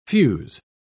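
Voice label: "fuze", British English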